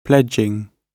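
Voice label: "pledging", British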